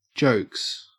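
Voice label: "jokes", Australian English; pronunciations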